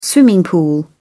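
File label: "swimming pool", British English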